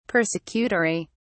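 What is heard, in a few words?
"persecutory", British English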